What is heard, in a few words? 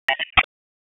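Raleigh Police North District Tactical, p25; wake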